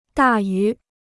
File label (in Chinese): "大于", Chinese